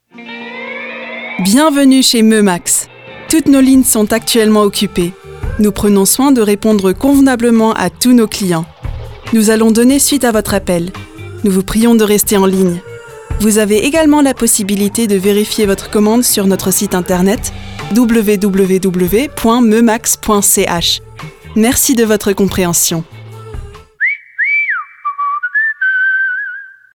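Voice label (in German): Warteschleife, französisch; Telefonband; Mömax Schweiz (FR)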